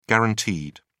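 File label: "guaranteed", British English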